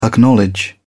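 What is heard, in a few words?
"acknowledge", British English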